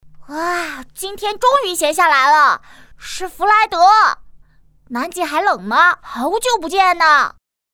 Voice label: 男童仿乐迪

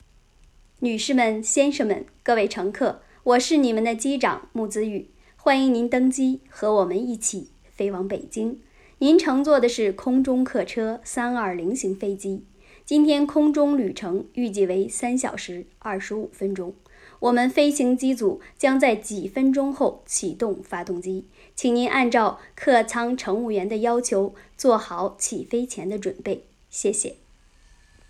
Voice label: 航空公司机长播报

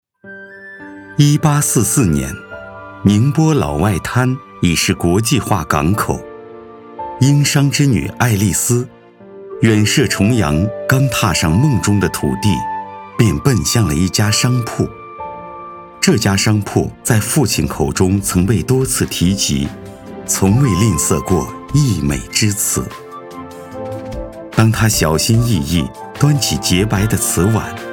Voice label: 舌尖纪录片

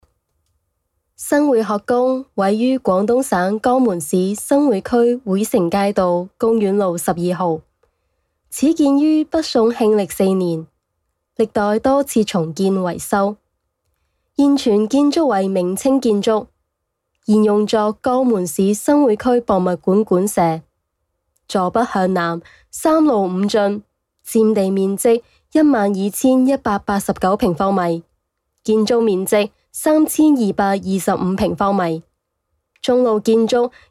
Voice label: 展览解说

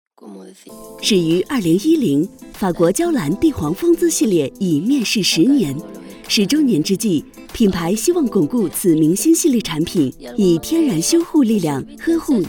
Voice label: 宣传广告; 娇兰

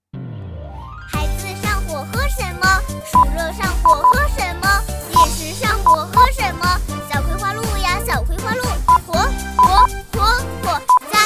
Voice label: 女童

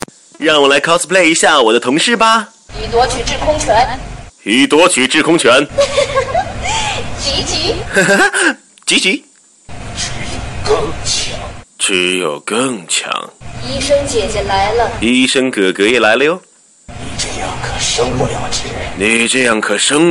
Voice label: 人物卡通配音; 守望先锋

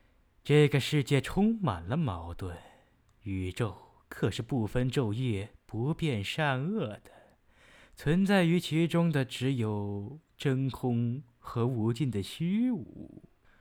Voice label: 奸诈声线

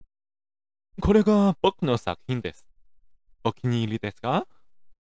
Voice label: 日语

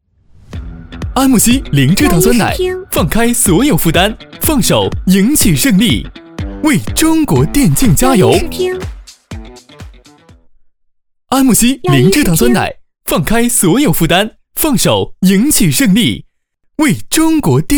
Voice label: 年轻激情; 安慕希

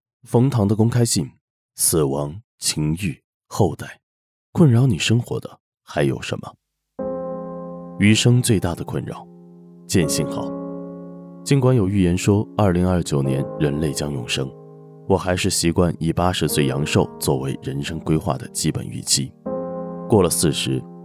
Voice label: 课件读书淡然

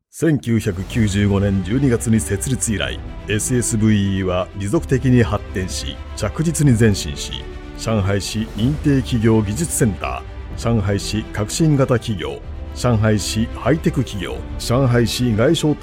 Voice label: 日语 男声 老师; 日语男声号老师

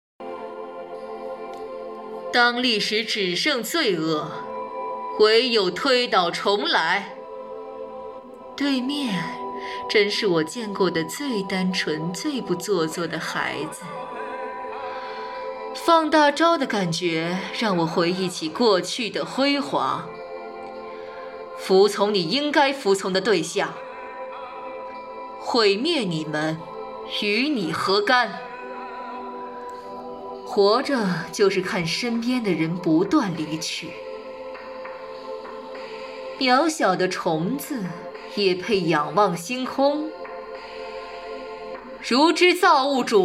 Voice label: 御妈 角色音; 王者荣耀; 女娲

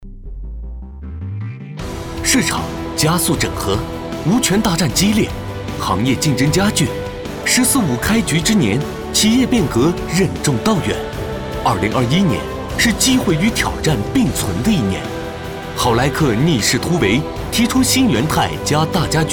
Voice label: 企业宣传片

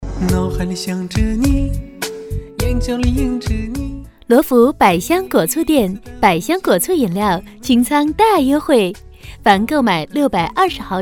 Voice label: 广告激情促销不失甜美

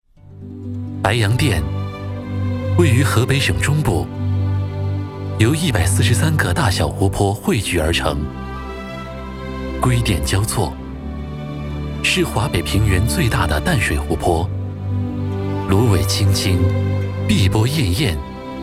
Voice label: 宣传 自然 娓娓道来

